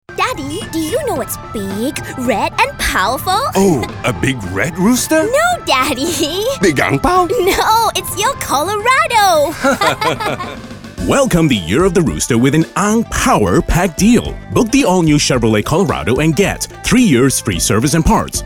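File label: English kid; CHEVROLET BIG RED POWERFUL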